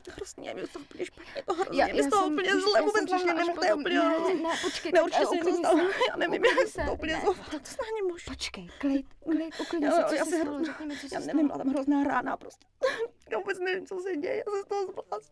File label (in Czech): ambi zenske; Mafie 1 cz